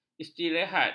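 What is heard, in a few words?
"istirahat", Malay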